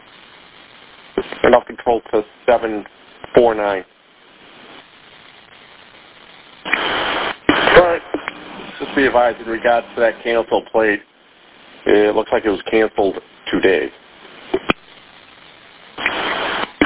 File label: Police Radio